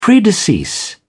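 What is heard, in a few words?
"predecease", British English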